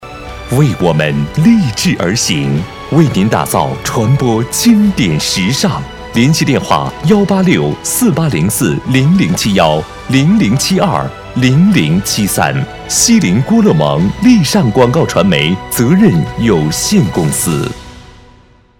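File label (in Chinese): 广告传媒音乐版; 励尚广告传媒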